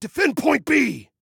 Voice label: DEFEND, Male